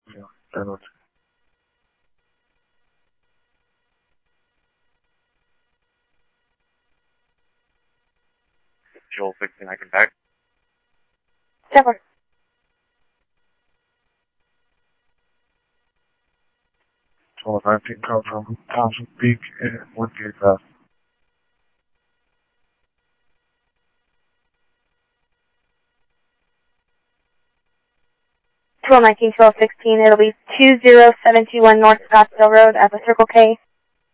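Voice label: police radio dispatch, ScottsdalePolice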